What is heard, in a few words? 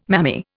"mammy", Indian